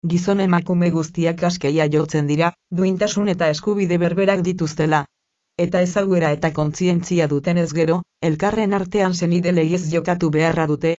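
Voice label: Basque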